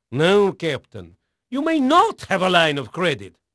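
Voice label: Portuguese male, voice; Pirates Of The Caribbean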